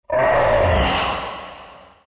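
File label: pain, Chinese; dota2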